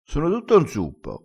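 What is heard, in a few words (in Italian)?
"inzuppo", vocabolario dialettale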